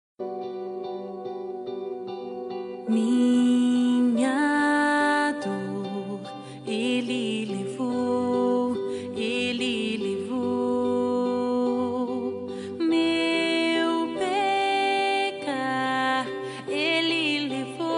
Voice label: pai masc gospel, Voz Masculino; Aniversário de Pai Gospel; Telemensagens para todas as ocasiões

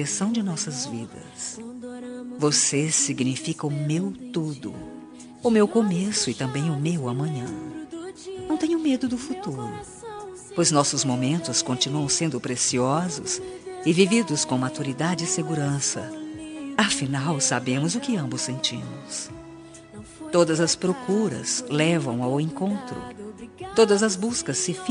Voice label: dn gospel fem marido, dia dos namorados para marido evangelica voz feminina

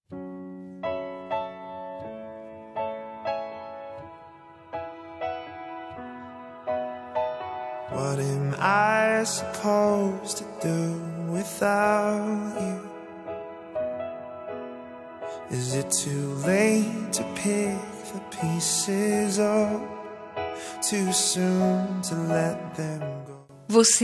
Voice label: dia dos namorados, namorada lgbt voz feminina; para namorada lgbt

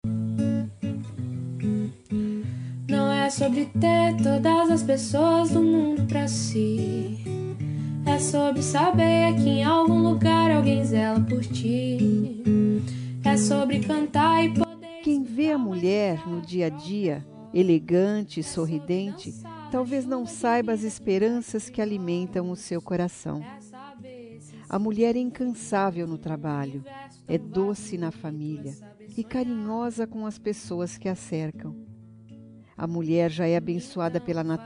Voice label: amiga fem, Dia das Mulheres Para Amiga – Voz Feminina; Telemensagens

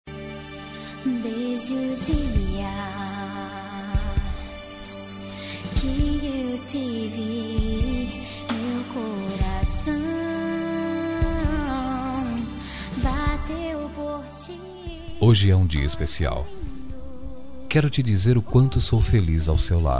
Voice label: esposa, voz masculina; dia dos namorados para esposa voz masculina

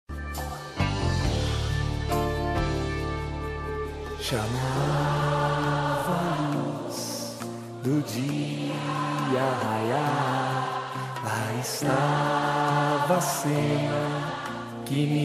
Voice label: religiosa fem, Aniversário Religioso – Voz Feminina

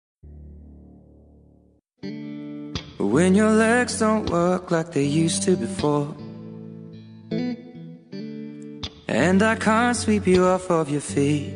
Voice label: dia dos namorados lgbt, voz feminina; para namorada

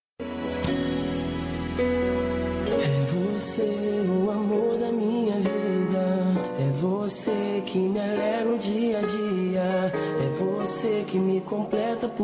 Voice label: Voz Feminina, Telemensagem; Telemensagem Romântica

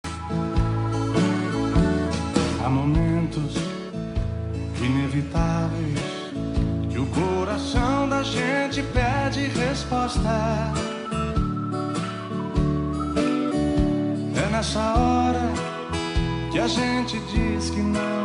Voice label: fem linda, Dia dos Pais Distante – Voz Feminina

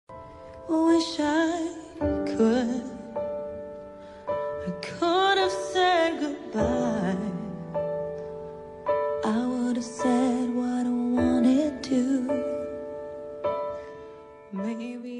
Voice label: dn marido fem; dia dos namorados para marido voz feminina

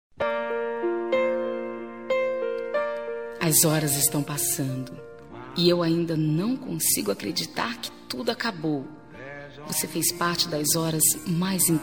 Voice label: reconciliacao fem; Reconciliação – Voz Feminina

